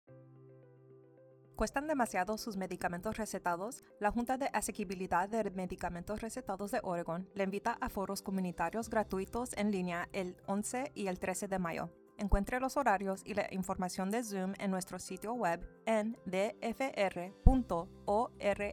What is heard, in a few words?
Spanish